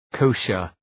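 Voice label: "kosher", English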